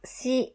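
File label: "shi", Japanese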